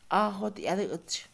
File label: "presagio", Mixe, Mujer; Religión; INALI